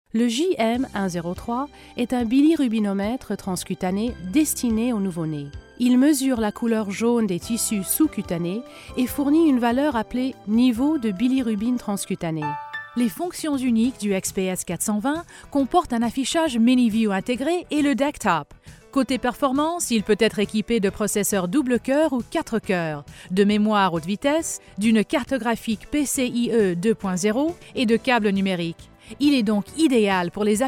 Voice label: french